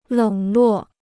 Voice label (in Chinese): "冷落", Chinese